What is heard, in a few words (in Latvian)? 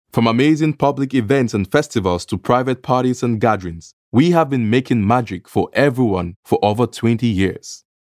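Reklāma English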